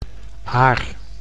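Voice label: "aar", Dutch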